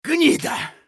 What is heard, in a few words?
scav battlecry; eft